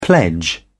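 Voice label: "pledge", British English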